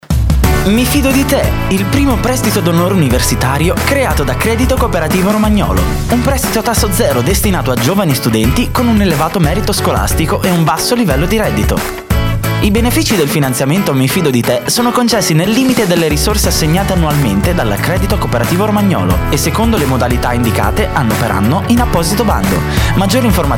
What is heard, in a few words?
Italian